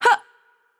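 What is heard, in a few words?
Attack Light, Czech; VO; cs CZ; bloom minigame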